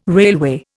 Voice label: "railway", Indian

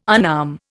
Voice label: "unarm", Indian

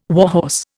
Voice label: "warhorse", Indian

